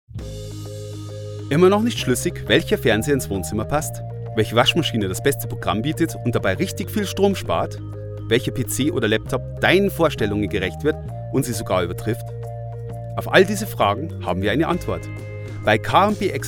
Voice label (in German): Werbung